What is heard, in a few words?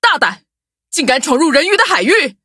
loading zh-hant; Cookie Run